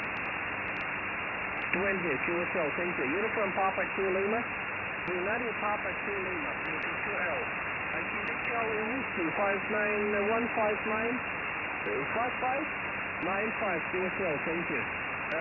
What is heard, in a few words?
voice 28Mhz